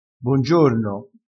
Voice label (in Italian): "bongiorno", dialettale